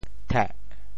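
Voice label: "theh4", Teochew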